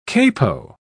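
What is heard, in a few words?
"capo", English, British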